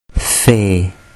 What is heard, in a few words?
"fe", urdu